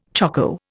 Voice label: "choko", Indian